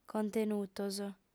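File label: "contenutos", Sardinian, female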